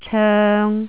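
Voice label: "coeng3", Cantonese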